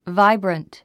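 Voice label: "vibrant", English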